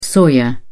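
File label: "soya", English, British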